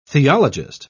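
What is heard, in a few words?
"theologist", British English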